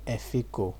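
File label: "efiko", Nigerian Pidgin, male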